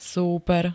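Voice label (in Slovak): "súper", Slovak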